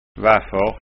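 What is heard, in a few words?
"vaffor", Scanian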